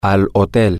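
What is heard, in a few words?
to the hotel, spanish, male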